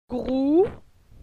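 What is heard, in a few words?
"Grou", French; phonetique; la syllabe ou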